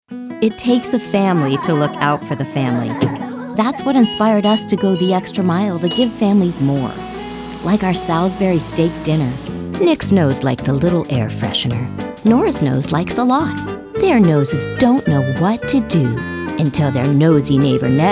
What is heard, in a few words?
Commercial